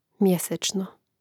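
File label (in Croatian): "mjesečno" Croatian; pril.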